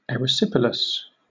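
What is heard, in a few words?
"erysipelas", British English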